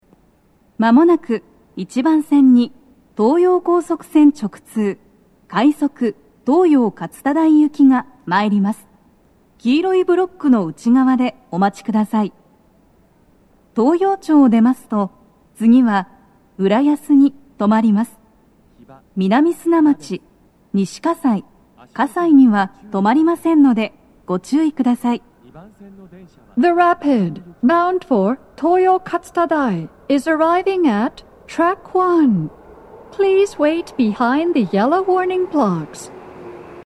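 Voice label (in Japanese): 接近放送, 女声, 快速 東葉勝田台行き; BOSEでの収録です。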